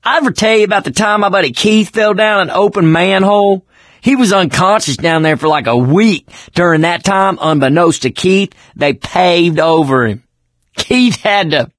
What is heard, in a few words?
stories, mechanic; ellis; Left 4 Dead 2; Source Engine; video games